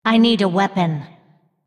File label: female undead error need weapon